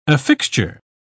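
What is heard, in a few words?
"affixture", English, uk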